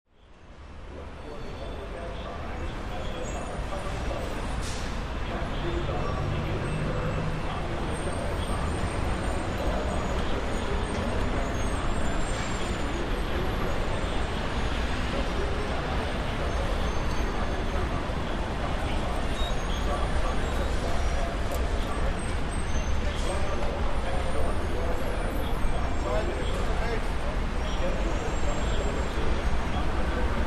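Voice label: PA Announcement Airport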